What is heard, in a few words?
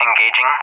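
"engaging" English, speech; DCS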